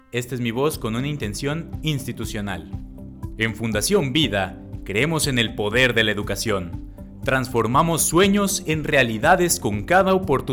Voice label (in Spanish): institucional